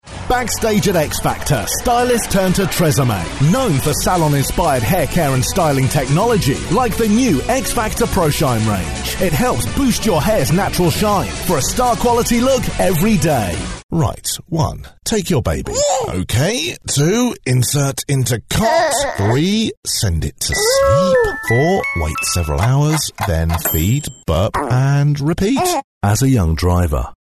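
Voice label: English, British, male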